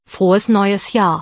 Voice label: new year, german; Frohes neues Jahr